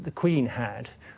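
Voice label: "the queen had", Standard Southern British English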